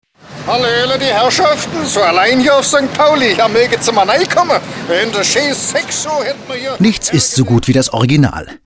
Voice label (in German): schwaebisch